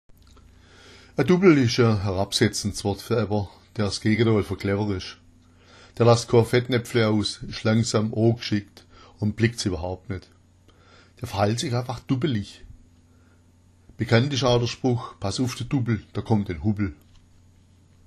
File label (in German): duppel, schwaebisch